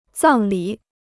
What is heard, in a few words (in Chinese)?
"葬礼", Chinese